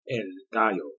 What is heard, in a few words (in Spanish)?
"el gallo", espanol